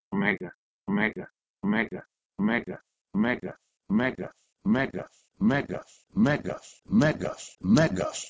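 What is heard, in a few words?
Proto-Indo-European megh2 to Ancient Greek megas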